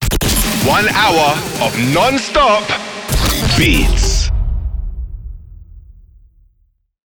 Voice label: male English radio promo

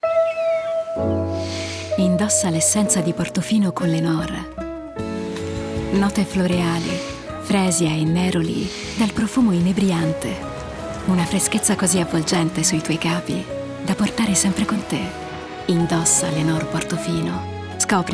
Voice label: female Italian; lenor

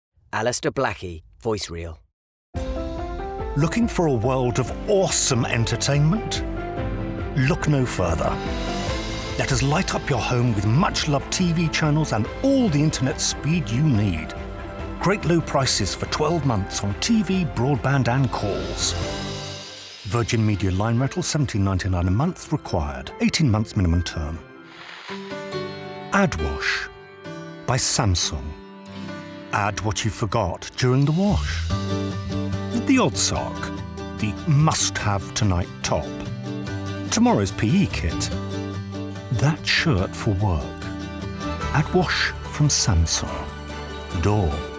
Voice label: male english compilation; Devine Voices

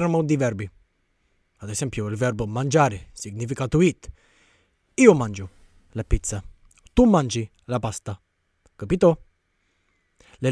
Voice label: male italian narration

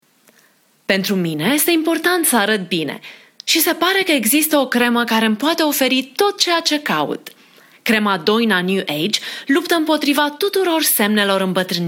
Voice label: female romanian commercial

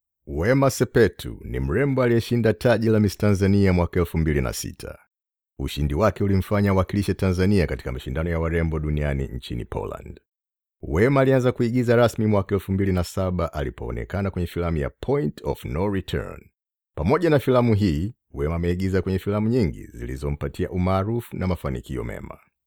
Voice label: male swahili documentary; english and swahili; wema sepetu

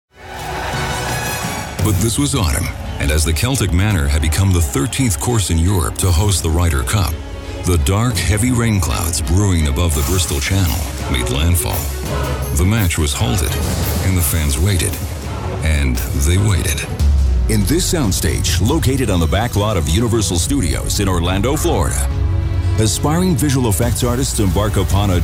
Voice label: male English USA narration compilation

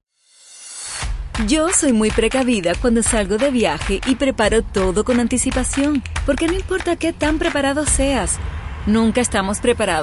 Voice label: female cuban neutral spanish latam commercial; devine voices

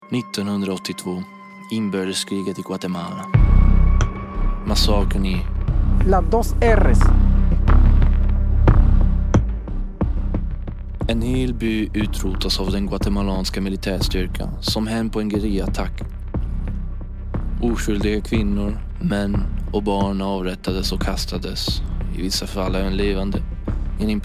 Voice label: male, Swedish, narration

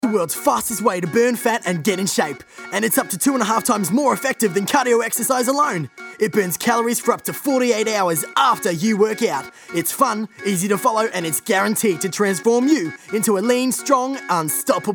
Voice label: male australian accent commercial; english; les mills

